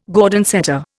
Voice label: "Gordon setter", Indian